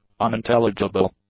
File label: "unintelligible", English; adjectives